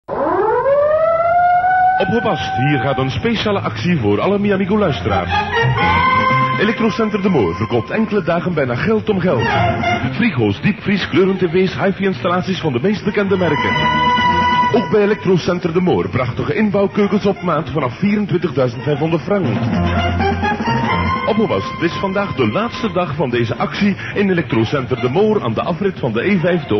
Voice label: commercial